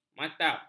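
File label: "matab", Malay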